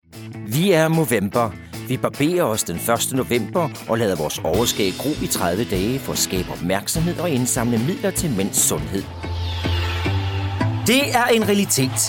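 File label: Danish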